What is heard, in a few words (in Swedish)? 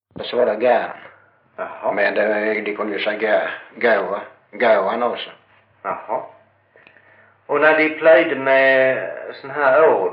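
Mörrum Blekinge, Svenska sagor, dialekter; Folkminnen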